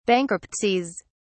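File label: "bankruptcies", British English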